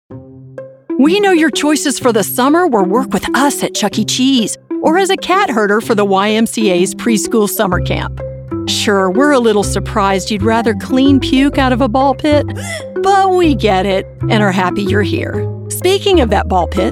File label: E Learning